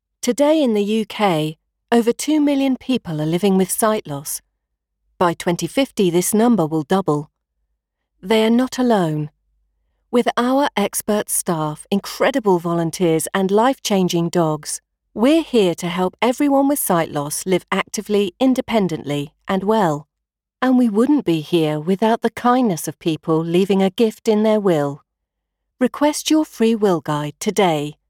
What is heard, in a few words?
Web Ad Compassionate